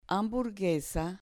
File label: "hamburguesa" Spanish